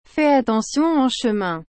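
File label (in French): "Fais attention en chemin.", French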